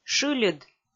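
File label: "шыльыд", Komi, female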